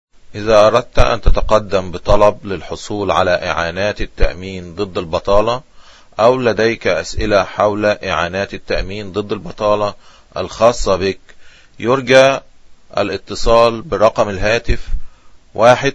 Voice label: arabic